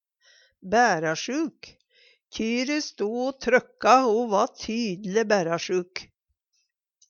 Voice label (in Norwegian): "bærasjuk", Numedalsmål; kalvinga er i gang; Kjyre sto o trøkka o va tydele bærasjuk.; Uttrykk